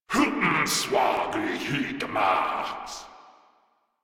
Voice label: Reload, Grineer Male; Warframe